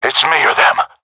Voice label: scared; vo; Counter Strike Model Pack; CS:GO; seal diver